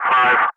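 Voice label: Speech, English, Digits, 3 continue; Ka-50; Wingman; DCS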